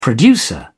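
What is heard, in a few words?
"producer", English, British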